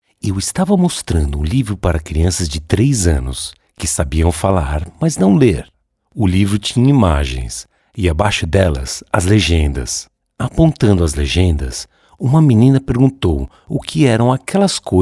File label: male brazilian portuguese e-book